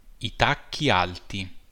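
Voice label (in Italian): "tacchi alti", Italian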